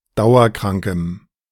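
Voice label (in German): "dauerkrankem", German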